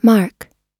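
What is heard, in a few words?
"mark", American English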